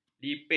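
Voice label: "lipit", Malay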